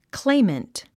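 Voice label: "claimant", English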